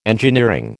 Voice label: "engineering", American

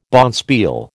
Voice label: "bonspiel", American

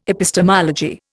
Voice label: "epistemology", Indian

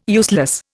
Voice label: "useless", Indian

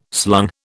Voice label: "slung", British

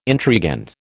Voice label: "intrigant", American

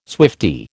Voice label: "swiftie", American

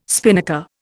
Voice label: "spinnaker", Indian

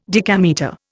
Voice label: "decametre", Indian

